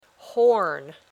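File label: "horn", English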